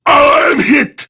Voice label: Death Prime Grineer Male; Tau; Battle Barks

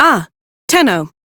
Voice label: Crew Greet, Female; Warframe; Railjack; Cephalon Suda

